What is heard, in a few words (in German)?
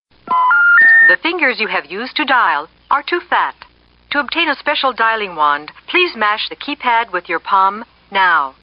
Anrufbeantworter